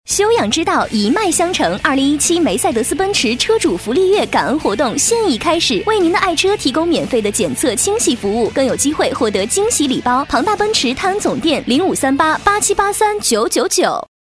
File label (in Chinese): 女7号大气广告; 庞大奔驰